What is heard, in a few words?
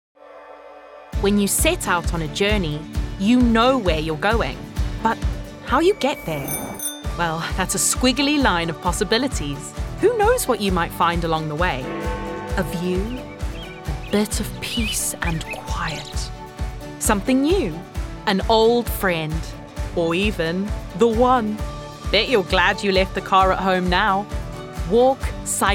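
South African English, corporate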